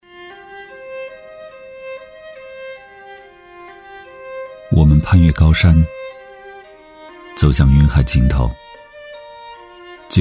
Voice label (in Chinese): 男 独白; 喜欢的文案; 磁性舒缓; 质感磁性